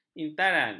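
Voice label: "intaran", Malay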